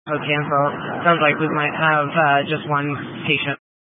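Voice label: Incident Tactical, scanner audio recording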